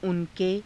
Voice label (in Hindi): "una ke", Hindi